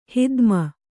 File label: "ಹಿಧ್ಮೆ", Kannada